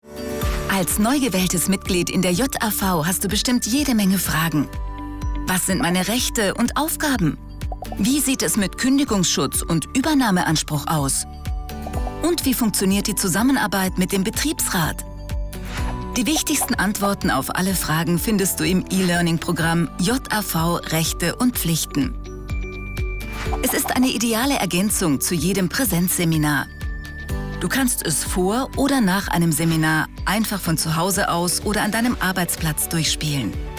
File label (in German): Erklarfilm junger; Bund JAV